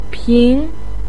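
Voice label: "pin2", Mandarin Chinese, pinyin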